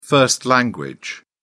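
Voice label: "first language", British English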